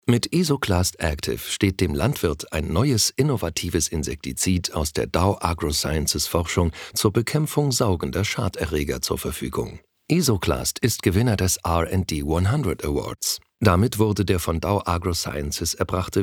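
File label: German Produktfilm; DowAgro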